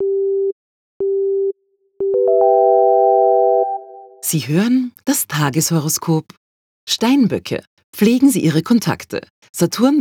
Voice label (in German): Sprecherin no Effects; Literarisch-akustische, interaktive Ausstellung